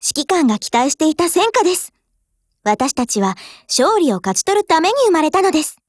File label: WIN JP; 95type